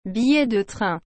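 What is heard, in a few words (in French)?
"billet de train", French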